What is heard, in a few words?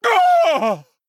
Male Shield Death